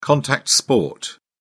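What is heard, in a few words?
"contact sport", British English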